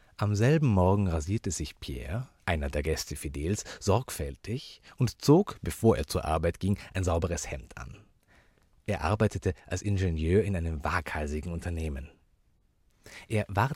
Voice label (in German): verlassene strasse, maenner